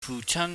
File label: "fuhchan", Cantonese